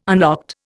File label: "unlocked", Indian